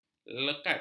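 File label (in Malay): "lekat", Malay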